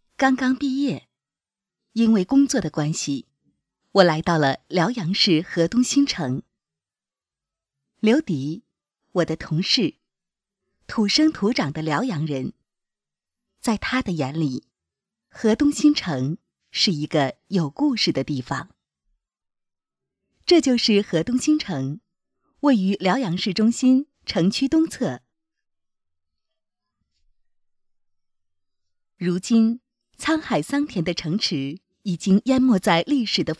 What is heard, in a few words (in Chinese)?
女 第一人称自述; 河东新城